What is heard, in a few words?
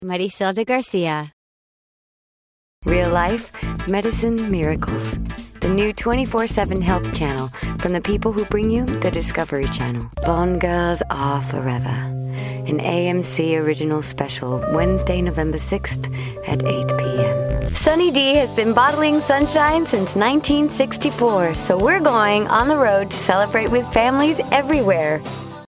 commercial; Innovative LA